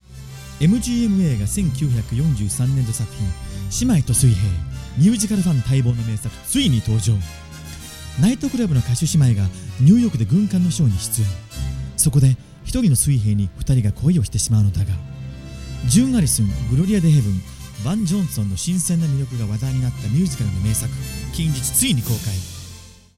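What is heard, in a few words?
Japanese, narration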